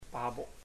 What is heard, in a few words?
sons, chamacoco; Puerto Diana